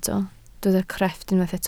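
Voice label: "crefft" Welsh, spoken word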